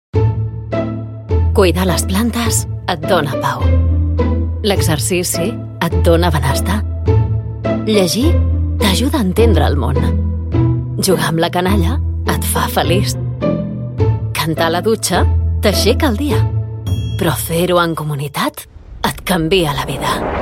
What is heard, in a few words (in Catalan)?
catala corporatiu veu clara; associacionisme